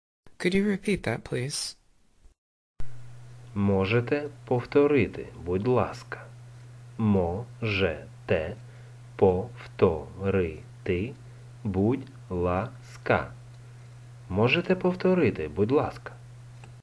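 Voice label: Could you repeat that please, Ukrainian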